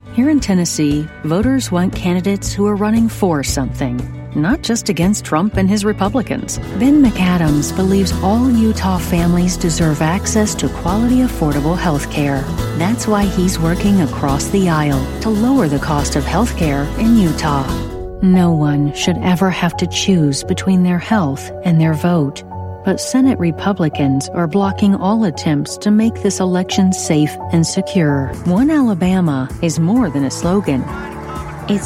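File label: English US, political; GoLocalise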